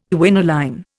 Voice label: "quinoline", Indian